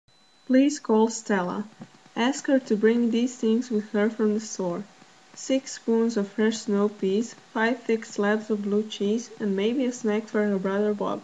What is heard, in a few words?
reading the elicitation paragraph for the speech accent archive